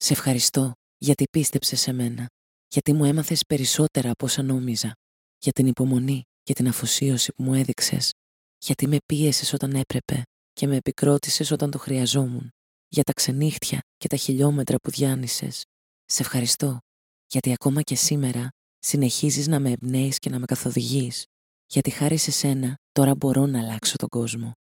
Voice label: greek audiobooks; greek voice over talent

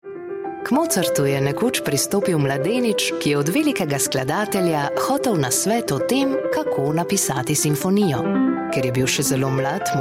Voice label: slovenian narration; slovenian voice over talent

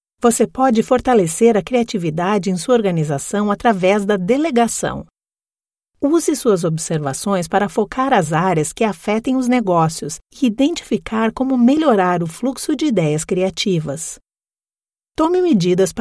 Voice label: portuguese brazilian e-learning

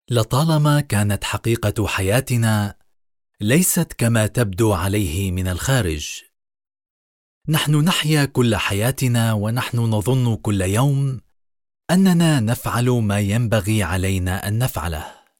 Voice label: arabic narration

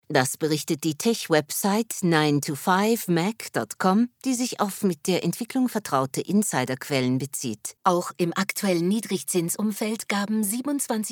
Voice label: german corporate videos

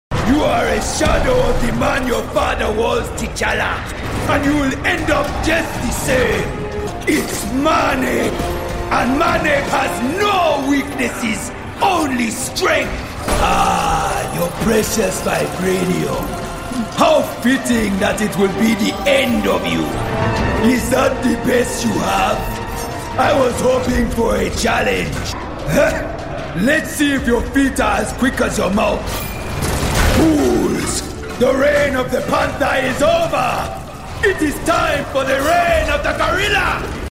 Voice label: english british animation

